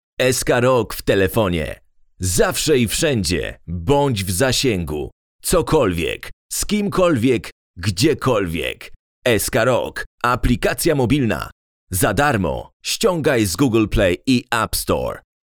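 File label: Polish, male, announcement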